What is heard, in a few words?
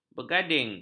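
"bergading", Malay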